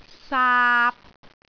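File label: "saap3", Cantonese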